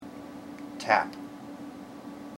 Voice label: "tap", IPA